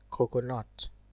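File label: "coconut", Nigerian Pidgin, male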